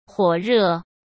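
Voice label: "火热", Mandarin Chinese; chinese flashcards; hsk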